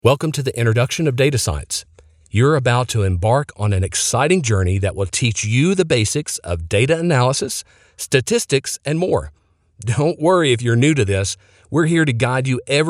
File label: ELearning Data Science